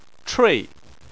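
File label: "chui" fourth tone, Chinese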